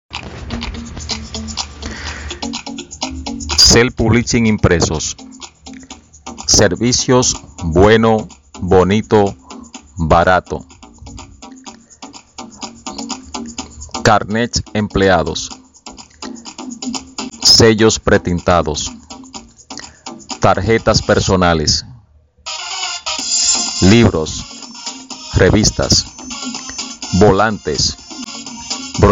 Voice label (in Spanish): comercial; fva impresos